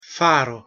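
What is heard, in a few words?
"faro", pronunciation Italian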